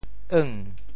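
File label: "eung2", Teochew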